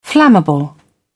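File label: "flammable", British English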